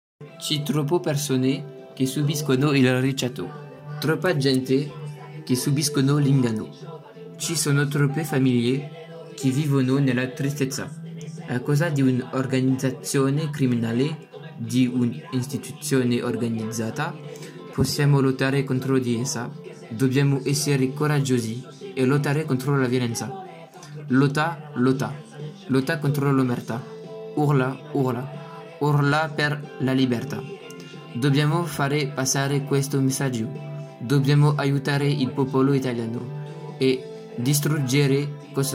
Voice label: italien slam